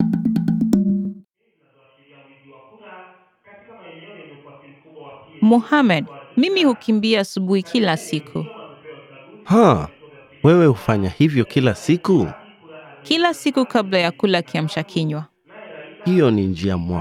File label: dialog, swahili; swahilipod101